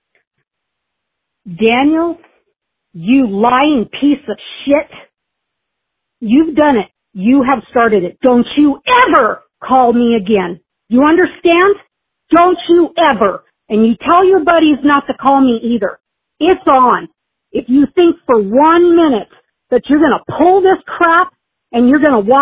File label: voicemail